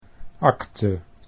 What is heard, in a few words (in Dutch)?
"acte", Dutch